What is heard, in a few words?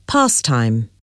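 "pastime", English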